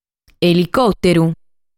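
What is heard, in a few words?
"Elicòteru", Sardinian word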